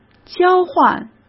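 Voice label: "交换", Mandarin; chinese flashcards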